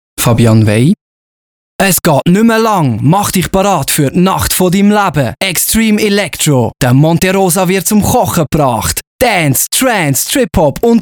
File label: Spot Jung; Sprecher-Samples; Aufgenommen im NJP Tonstudio AG in Küsnacht, ZH.